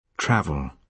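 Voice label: "travel", British English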